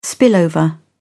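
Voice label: "spillover", British English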